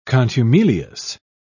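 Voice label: "contumelious", British English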